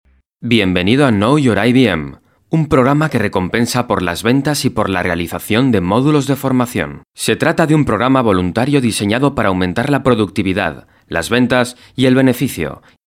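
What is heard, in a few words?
Spanish, male, elearning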